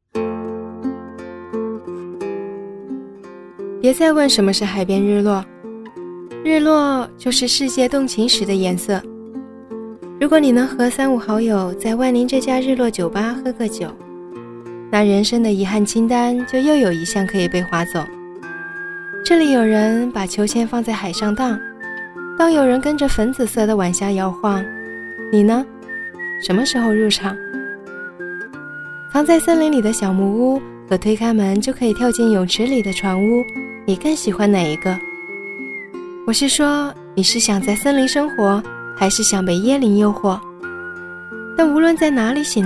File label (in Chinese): 女, 旁白, 自然; 海南万宁; 甜美亲切